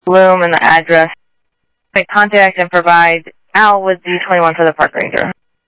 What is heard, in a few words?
Sheriff, scanner audio